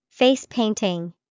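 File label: face painting, English vocabulary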